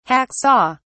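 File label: "hack saw", British English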